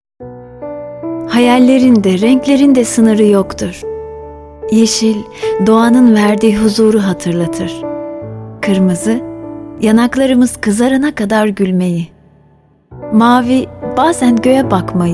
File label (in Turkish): reklam sefkatli; yoo istanbul